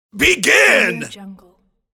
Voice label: man yelling begin